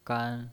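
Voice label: "gan", Mandarin Chinese, first tone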